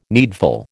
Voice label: "needful", American